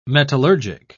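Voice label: "metallurgic", English, British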